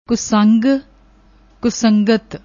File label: "kusang", Punjabi; ਕੁਸੰਗ